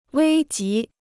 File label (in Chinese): "危急", Chinese